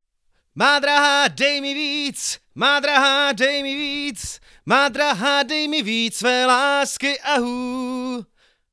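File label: Sennheiser e945 vocal; Sennheiser e945 vs čína e945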